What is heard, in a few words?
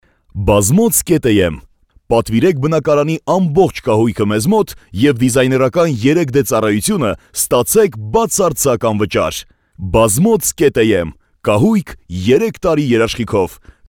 male armenian